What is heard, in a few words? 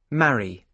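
"marry", British English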